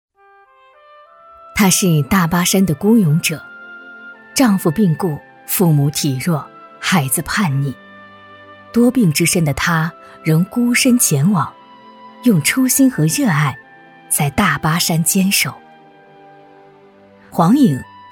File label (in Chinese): 女 专题 人物解说; 大气质感; 深情感人